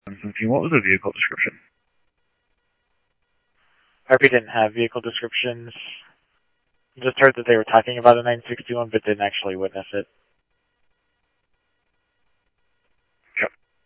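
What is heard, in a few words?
Mesa Simulcast Police Department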